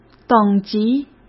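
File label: "等级", Mandarin Chinese; hsk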